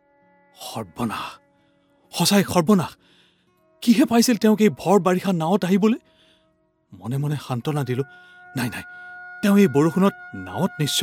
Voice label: Acting